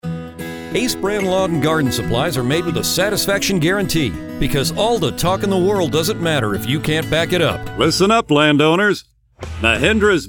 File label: Commercial